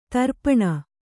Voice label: "ದರ್ಪಣ", Kannada

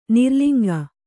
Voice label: "ನಿರ್ಲಿಂಗ", Kannada

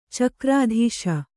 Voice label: "ಚಕ್ರಾಧೀಶ", kannada

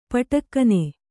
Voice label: "ಪಟಕ್ಕನೆ", Kannada

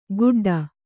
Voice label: "ಗುಡ್ಡ", Kannada

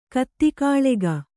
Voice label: "ಕುದುಕುಳಿಗ", Kannada

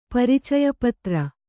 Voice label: "ಪರಿಚಯಪತ್ರ", Kannada

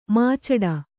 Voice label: "ಮುಚ್ಚಟೆ", Kannada

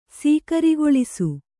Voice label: "ಸೀಕರಿಗೊಳಿಸು", Kannada

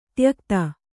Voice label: "ತ್ಯಕ್ತ", Kannada; tyakta; noun; that which is forsaken

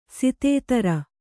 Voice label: "ಶತಧಾರ", Kannada

